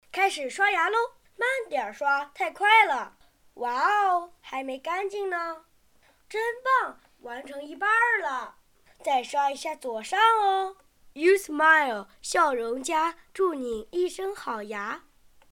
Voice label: 女 男真童; 真童配音; 刷牙

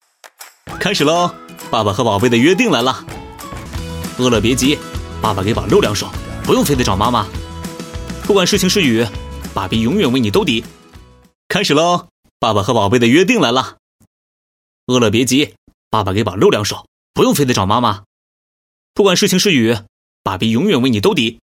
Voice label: 男 温暖素人 奶爸; 素人风格男声; 京东奶爸

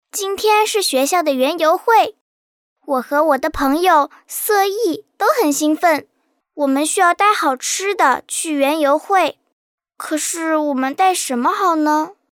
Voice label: 女真童; 园游会; 真童配音

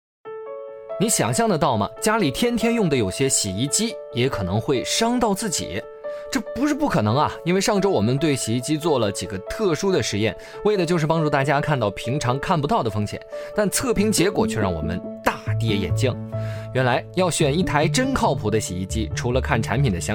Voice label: 男 UP主测评 洗衣机拆机实验科普视频; 小天鹅; up主解说

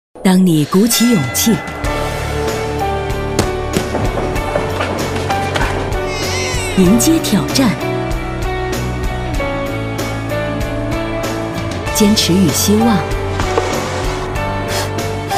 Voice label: 女 微电影独白 励志 力量 积极 成熟; 全友家居

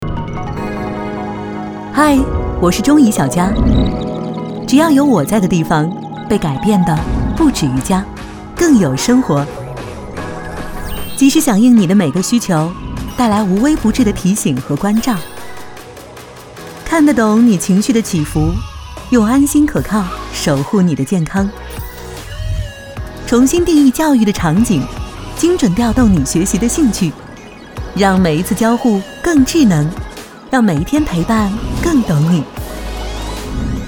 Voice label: 女 形象感 时尚讲述感; 走心企业形象片样音; 中移小佳

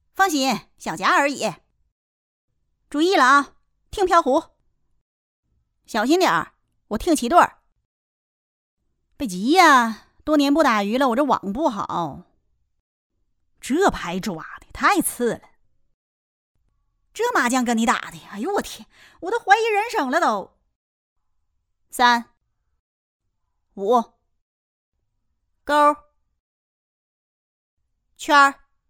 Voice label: 东北方言 女 东北棋牌 女声; 方言配音

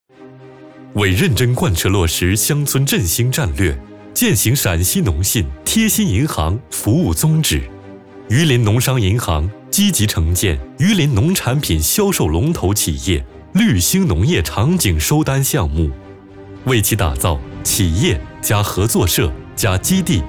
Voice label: 男, 银行宣传样音; 榆林农商银行绿兴农业乡村振兴场景项目

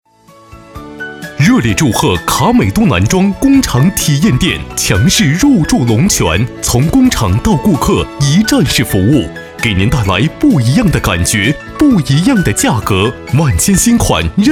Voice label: 男国 广告; 卡美都

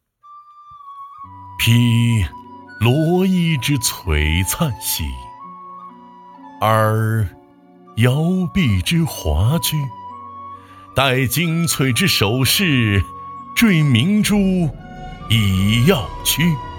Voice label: 男 古诗; 诗词类男声样音

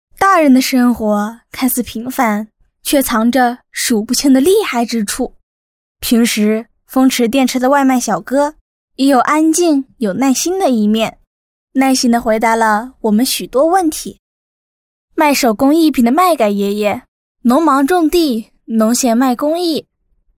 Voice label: 女 女孩; 儿童影像创作在地营; 真童配音

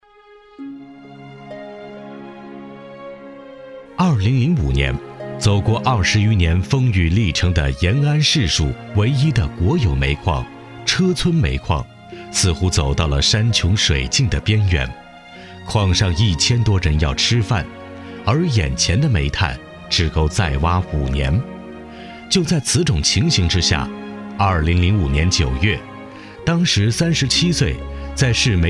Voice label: 男国 企业宣传片 感情 浑厚; 车村煤矿宣传片

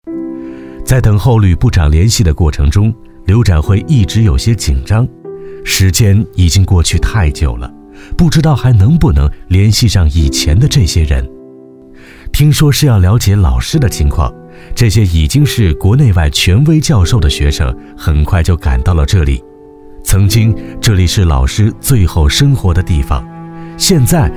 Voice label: 男国 大气 磁性 成熟 知性; 【故事湖南】之 柳子明

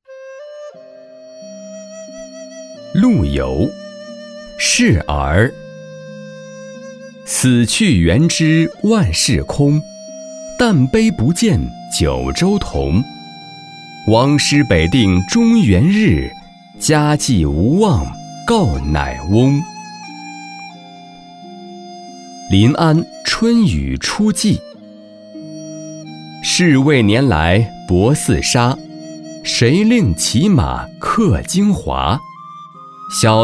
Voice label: 男 展馆 诗歌; 诗词类男声样音

